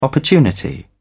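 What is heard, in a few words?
"opportunity", British English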